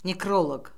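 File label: "nekroloq", Azerbaijani; Orfoqrafiya Lüğəti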